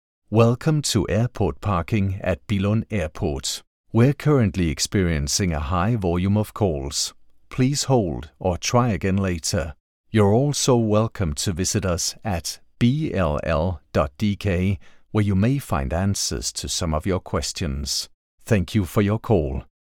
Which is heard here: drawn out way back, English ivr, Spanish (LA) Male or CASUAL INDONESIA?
English ivr